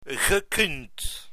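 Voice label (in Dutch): "gekund", Dutch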